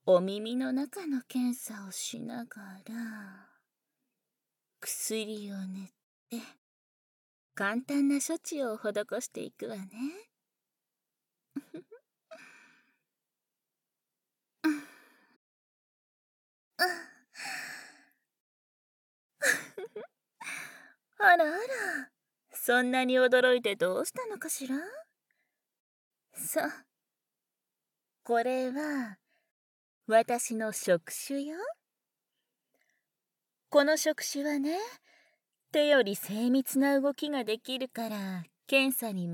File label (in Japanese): 触手攻め射精（バイノーラルマイク 効果音なし）